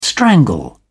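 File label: "strangle", British English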